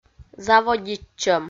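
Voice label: "заводитчӧм", Komi, female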